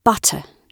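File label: "butter" British English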